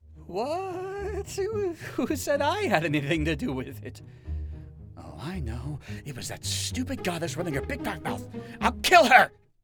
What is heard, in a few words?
Anime Samples comedy